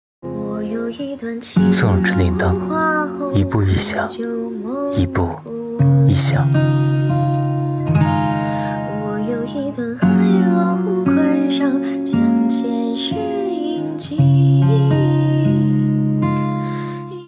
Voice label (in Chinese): 配音